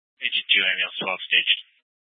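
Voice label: Fire Dispatch, Scanner audio recording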